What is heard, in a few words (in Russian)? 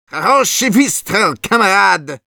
Medic nice shot, ru; «Хороший выстрел, камрад!»